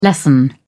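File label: "lessen", British English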